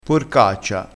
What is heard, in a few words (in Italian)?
"porcaccia", crespinese